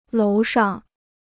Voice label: "楼上", Chinese

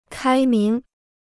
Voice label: "开明", Chinese

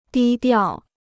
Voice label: "低调", Chinese

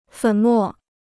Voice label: "粉末", Chinese

